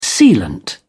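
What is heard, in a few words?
"sealant", British English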